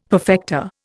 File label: "perfecta", Indian; Dictionary